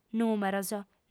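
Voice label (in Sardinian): "nùmeros", female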